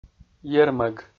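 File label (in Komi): "ермӧг", Komi, male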